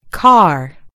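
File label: "car", American English; gcompris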